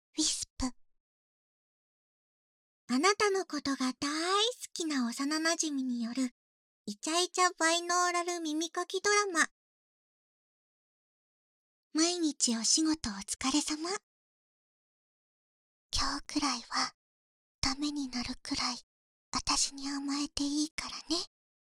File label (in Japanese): タイトルコール